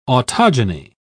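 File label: "autogeny", British English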